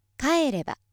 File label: female